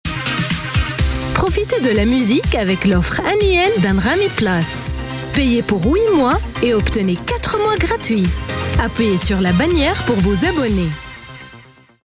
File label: European French App Promo; Anghami Offre